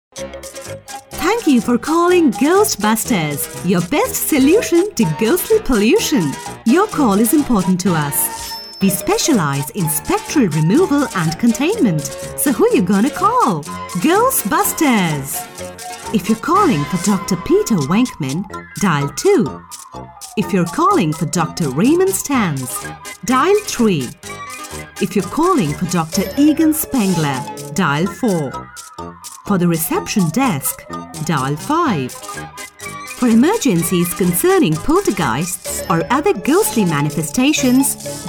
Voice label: female Indian Hindi English with slight Indian accent IVR compilation